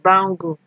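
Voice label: "bangle", Nigerian Pidgin, female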